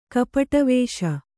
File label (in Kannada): "ಕಪಟವೇಷ", Kannada